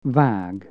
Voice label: cut, hungarian